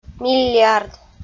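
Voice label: "миллиард" Komi, female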